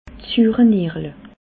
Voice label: "suurenierle", alsace

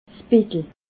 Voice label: "bettel", alsace

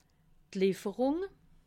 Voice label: "lieferung", alsace

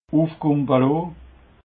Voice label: "uf gumbaloo", alsace

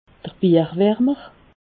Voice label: "bierwarmer", alsace

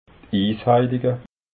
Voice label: "isheiliga", alsace

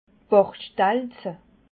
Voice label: "bachstelze", Alsace

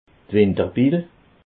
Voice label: "winterbil", alsace

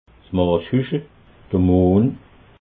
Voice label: "mashuchel", alsace

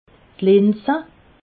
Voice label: "linse", alsace

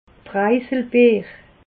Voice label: "preiselbeer", alsace

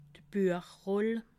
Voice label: "buech roll", alsace

